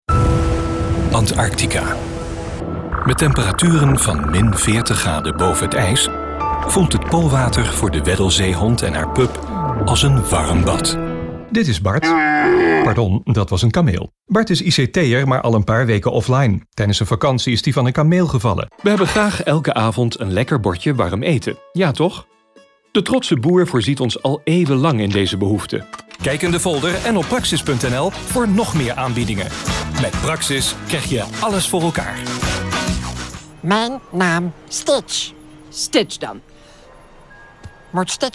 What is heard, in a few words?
Male 40-50 years Versatile, dutch netherlands